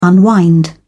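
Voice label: "unwind", English, British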